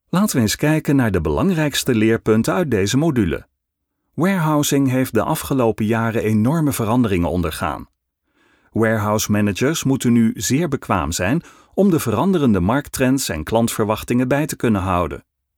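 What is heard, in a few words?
e-learning, Dutch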